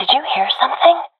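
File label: heard sound, professional female; vo; Counter Strike Model Pack; CS:GO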